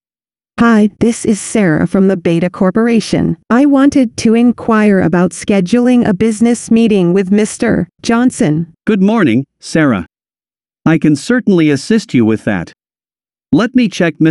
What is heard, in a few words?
telephone